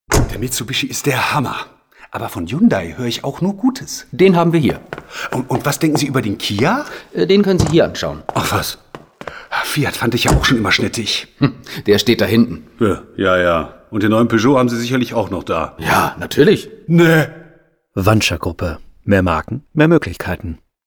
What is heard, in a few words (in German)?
Radiospot; enorMedia; Wandscher-Gruppe